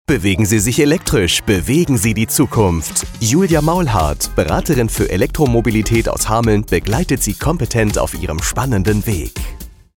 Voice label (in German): Funkspot Beratung für Elektromobilität